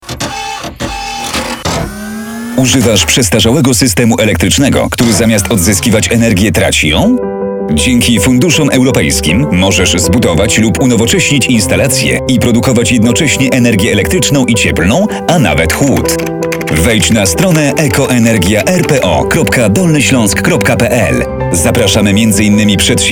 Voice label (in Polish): spot radiowy